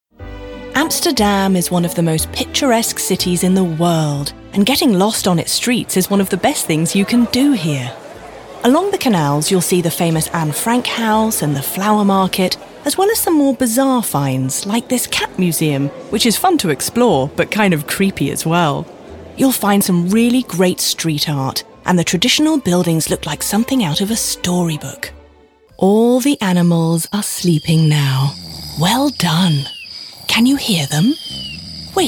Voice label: British Narration; TaDa

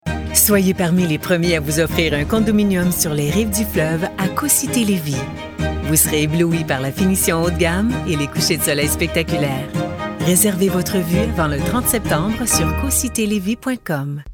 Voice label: Commercial French; TaDa

